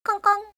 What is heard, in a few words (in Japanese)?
"konkon", voice